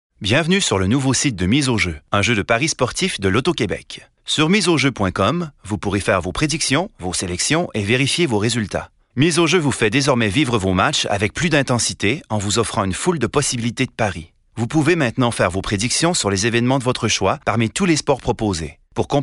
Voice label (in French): voix pub